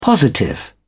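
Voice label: "positive", British English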